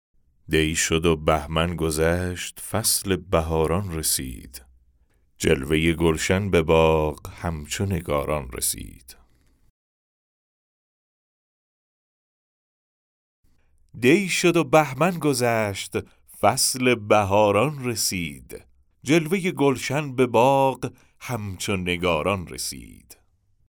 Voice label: Narration; nowruz